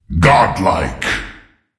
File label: Voices Announcer Reward